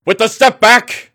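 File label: step back, basketball announcer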